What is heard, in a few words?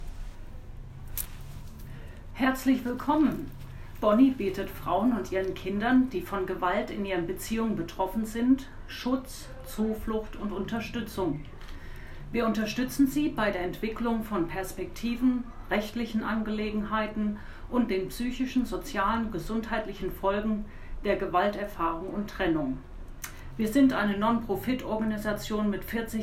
German